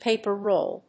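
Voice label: "PAPER-ROLL", English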